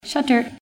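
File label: English; volet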